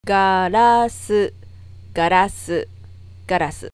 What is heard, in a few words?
Glass material, katakana